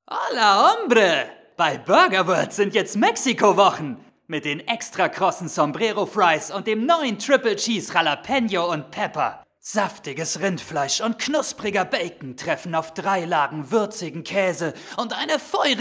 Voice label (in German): Werbung; Spots; Burger World